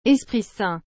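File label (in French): "Esprit Saint", French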